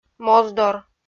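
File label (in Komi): "моздор", Komi, female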